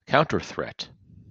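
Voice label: "counterthreat", US English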